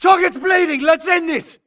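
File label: grunt detect Player Low Health; imc; Titanfall 2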